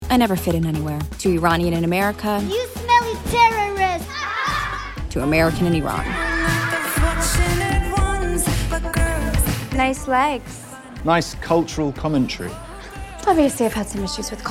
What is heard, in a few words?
persian version